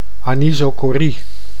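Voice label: "anisocorie", Dutch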